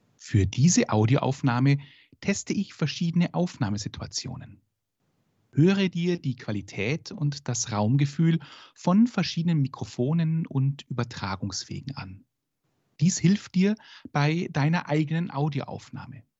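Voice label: podcast online, online VideoChat without echo cancellation (HiFi setting) & external microphone (SuperCardioid) + effect: 1. compression, 2. bass & treble, 3. normalize; procaster hifi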